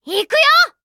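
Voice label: story, Japanese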